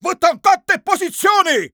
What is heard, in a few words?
neutral